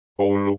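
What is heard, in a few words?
"Oulu", Finnish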